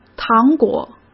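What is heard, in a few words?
"糖果", Mandarin Chinese; chinese-flashcards; hsk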